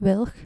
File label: "wilg", Dutch